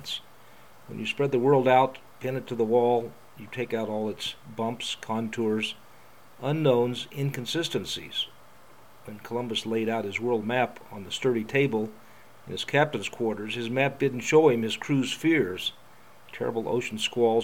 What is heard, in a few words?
talking on phone